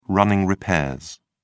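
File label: "running repairs", British English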